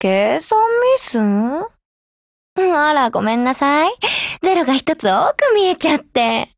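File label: OPERATION OVER Japanese, Voice; GF Alarm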